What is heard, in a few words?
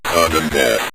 sudden death, synth voice; Kenney's Sound Pack